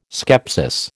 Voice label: "skepsis", American

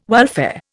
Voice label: "welfare", Indian

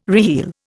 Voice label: "reheel", Indian

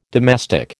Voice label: "domestic", American

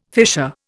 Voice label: "fissure", Indian

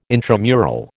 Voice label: "intramural", American

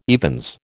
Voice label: "evens", American

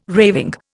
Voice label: "raving", Indian

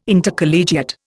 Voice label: "Intercollegiate", Indian

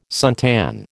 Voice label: "suntan", American

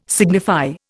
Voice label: "signify", Indian

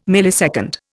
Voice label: "millisecond", Indian

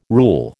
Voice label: "rule", American

